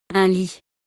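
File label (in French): "Un lit", French; vocabulaire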